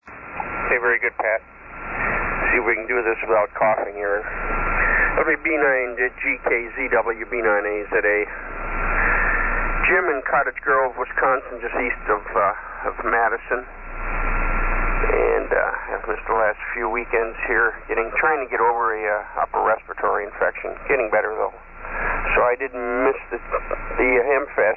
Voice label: Drake T-4X & R-4 and GLA-1000 Amp; Cottoge Grove, Wi.